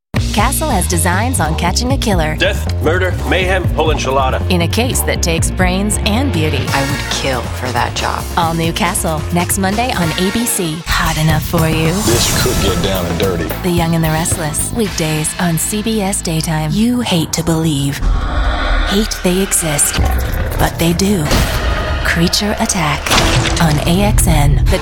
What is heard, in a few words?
women promos; Bubbly. Bright. Casual. Spunky. Playful. Intimate. Clear. Peachy.